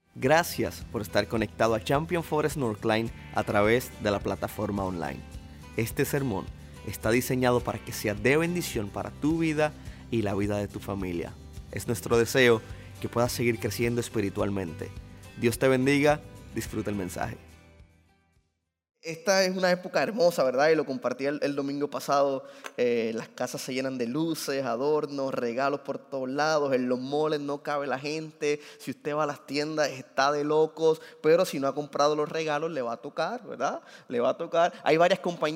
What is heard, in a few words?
Sermon Spanish